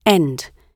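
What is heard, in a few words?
"end", British English; Oxford Audio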